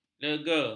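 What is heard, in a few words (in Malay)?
"lega", Malay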